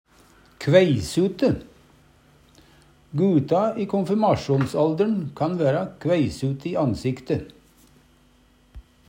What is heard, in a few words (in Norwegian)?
kveisute, Numedalsmål; Adjektiv